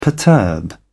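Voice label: "perturb", British English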